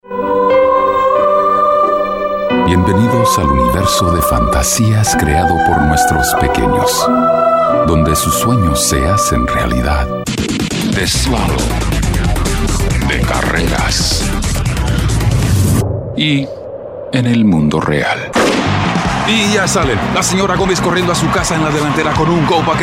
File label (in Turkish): Ispanyolca Erkek Ses; seslendirme